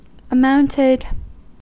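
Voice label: "amounted", US English; pronunciations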